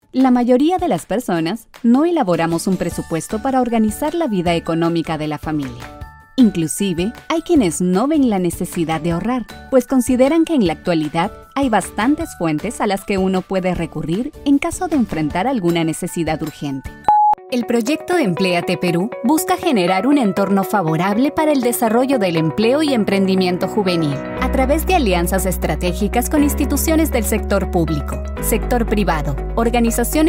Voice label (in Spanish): Reel Locución Corporativa E-Learnings